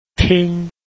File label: "ting1", Chinese